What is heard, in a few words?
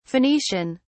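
"phoenician", British English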